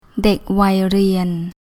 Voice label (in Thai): "Dèk Wai Rean", Thai